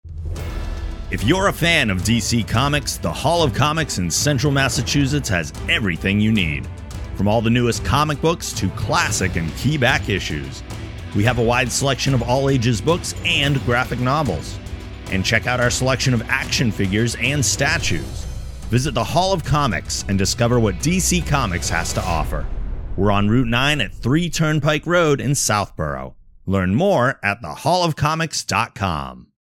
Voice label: commercial; The Hall of Comics